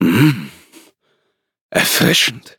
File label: levelup de; cookie run